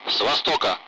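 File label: Speech Sound Russian Common Allied Flight; DCS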